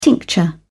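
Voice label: "tincture", British English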